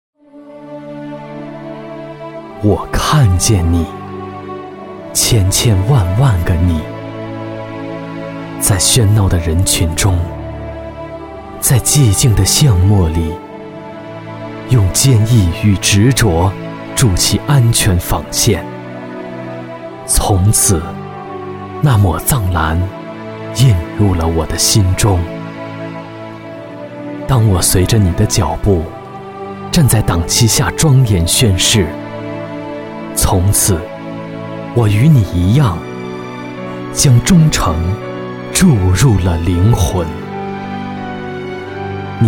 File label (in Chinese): 男 独白